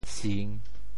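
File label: "sing3", Teochew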